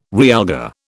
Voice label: "realgar", British

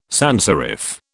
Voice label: "sanserif", British

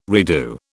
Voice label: "redo", British

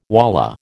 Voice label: "wallah", American

